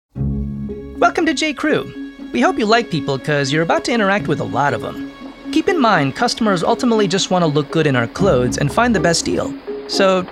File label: VO E-Learning